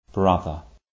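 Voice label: "brother", English, RP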